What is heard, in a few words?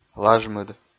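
"лажмыд", Komi, male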